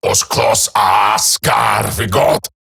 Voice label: Cover Me Eximus Grineer Male; Battle

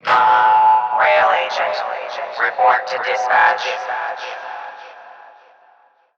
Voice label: PA System, en; Warframe; Solaris Venus

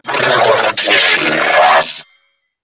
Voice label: Attack Grineer Female; en; New War; Narmer Grineer

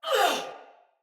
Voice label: Death Finisher, Soldier Female; Battle; Barks; Dialog; Sinecure Soldier Female A

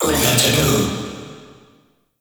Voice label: Vocal Verb; Summon; Warframe; Uriel; Summon Vythelas

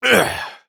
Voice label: Horse Mount Adult; Duviri

